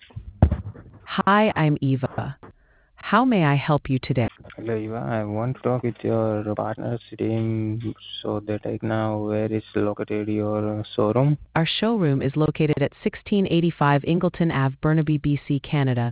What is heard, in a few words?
conversation